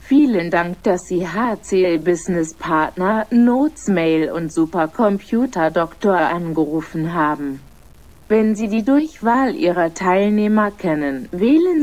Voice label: Main Menu German; NotesMail

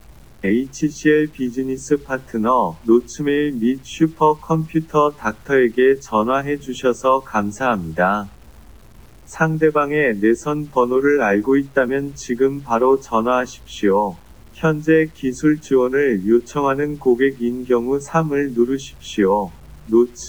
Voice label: Main Menu Korean; NotesMail

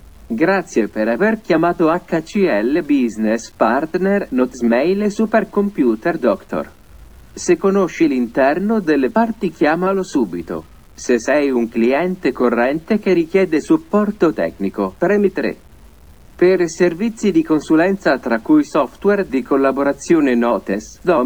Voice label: Main Menu Italian; NotesMail

